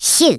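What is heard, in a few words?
"fire", Redneck, male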